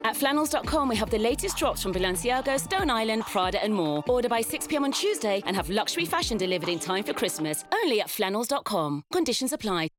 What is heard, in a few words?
female english radio commercial; flannels